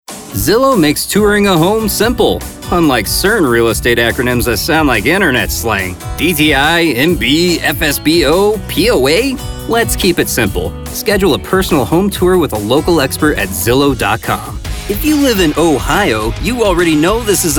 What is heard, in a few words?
vo commercial